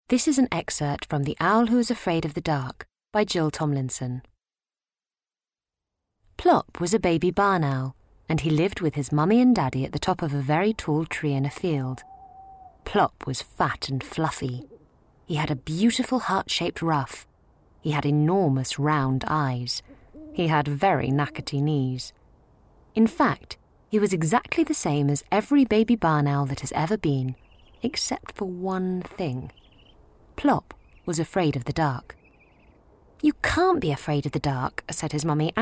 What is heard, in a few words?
narration; Owl; Vocal Point